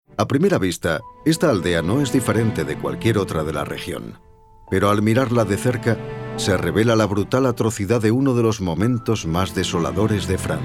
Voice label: spanish spain male narrative